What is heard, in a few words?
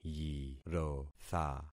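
"yirotha", concatenated syllables; Affixing experiment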